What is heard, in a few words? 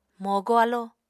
"mogo alo", Apatani